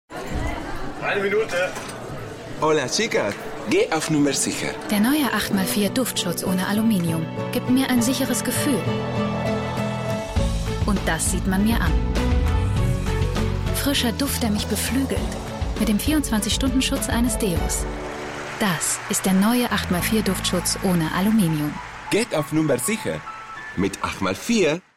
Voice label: testimonail